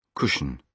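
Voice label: "cushion", British English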